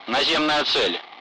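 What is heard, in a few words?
Speech Russian; Allied Flight; target-ground target; DCS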